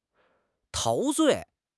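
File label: "陶醉", Mandarin Chinese; HSK6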